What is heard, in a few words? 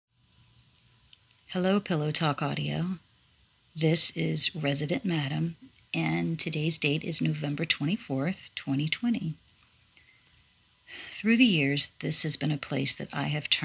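Female Verification Request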